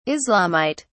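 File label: "islamite", British English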